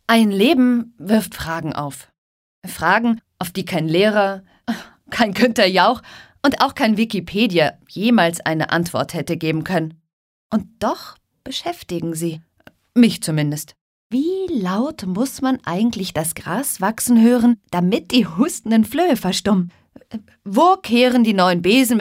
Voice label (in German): Schauspiel, Dynamisch